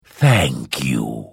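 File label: Follow, Vo; Morrowind GOTY GOG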